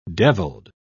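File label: "devilled", British English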